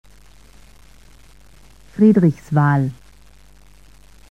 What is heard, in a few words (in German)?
Ansage Haltestelle Friedrichswahl; SSB AG